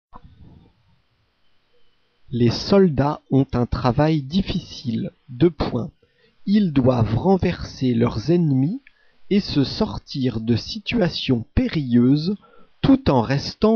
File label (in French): dictee